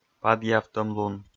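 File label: "падъявтӧмлун", Komi, male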